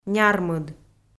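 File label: "нярмыд", Komi, female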